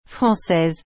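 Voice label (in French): "francaise", French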